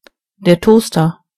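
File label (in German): "toaster", German; gcompris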